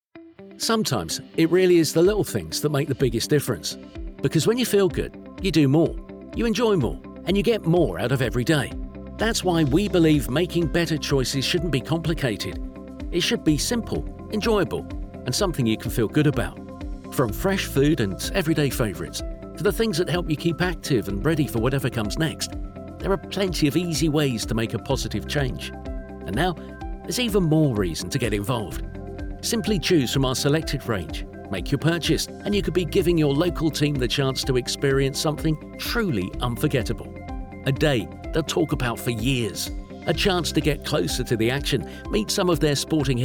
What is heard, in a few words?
VO Natural Southern London Kent Sussex, voice over artist uk